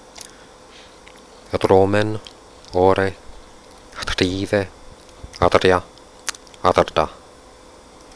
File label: quenya rhotics